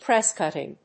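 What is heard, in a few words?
"press cutting", English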